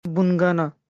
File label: "Bungana", Kurukh; Run